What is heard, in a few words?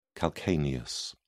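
"calcaneus", English, British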